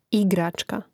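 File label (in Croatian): "igračka", hrvatski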